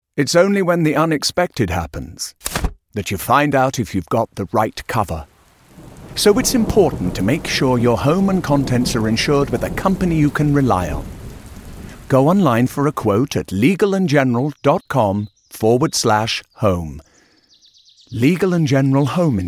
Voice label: advert; legal general

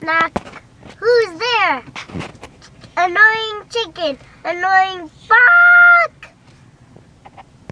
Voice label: annoying chicken knock joke

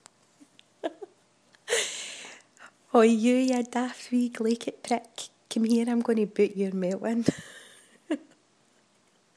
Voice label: glesga talk

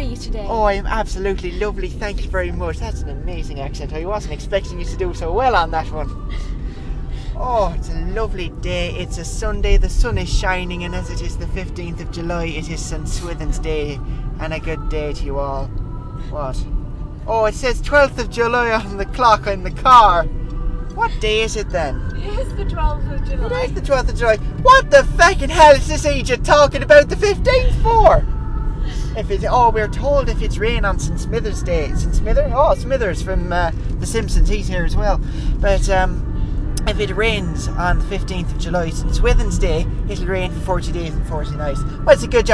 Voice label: fake Irish

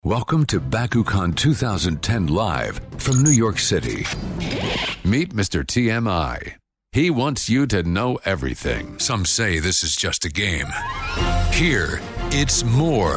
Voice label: voice over

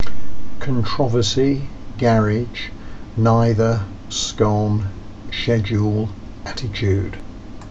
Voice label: basingstoke uk male

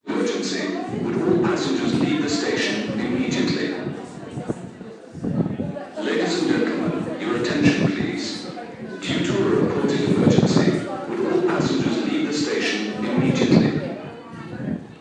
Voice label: underground evacuation announcement

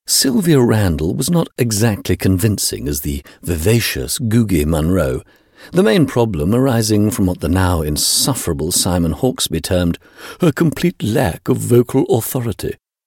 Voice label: narrative

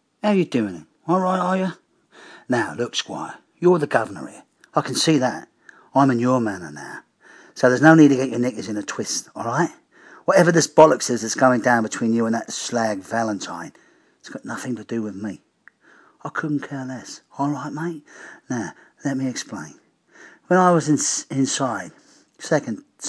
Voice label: cockney accent

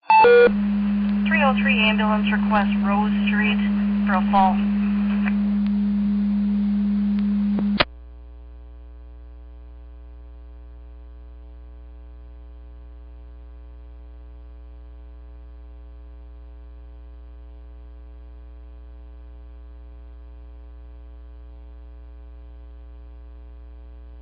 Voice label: tsa alert page

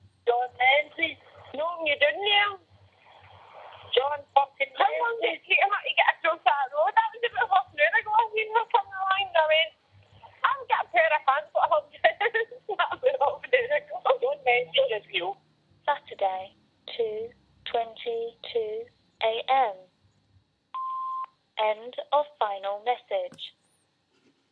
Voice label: phone message

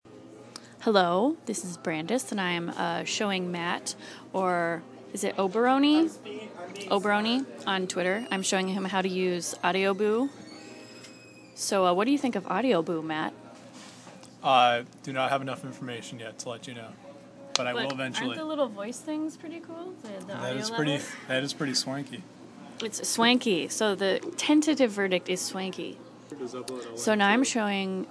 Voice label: chatting

